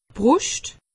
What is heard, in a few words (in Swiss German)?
"brust", alsace; medecine